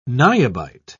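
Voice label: "niobite", British English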